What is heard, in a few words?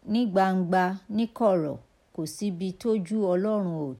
Yoruba example